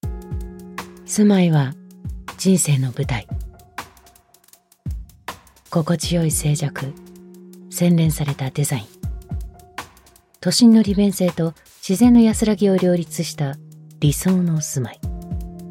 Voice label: Japanese real estate; Japanese voice actor